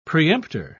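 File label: "preemptor", English, British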